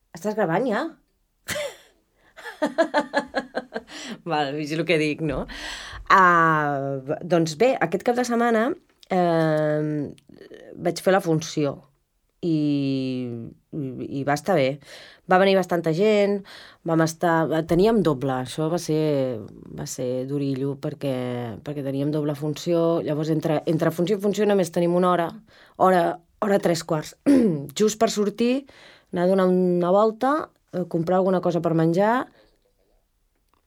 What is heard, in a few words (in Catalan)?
CAT CASTING PARLAR NORMAL